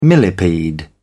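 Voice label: "millepede", British English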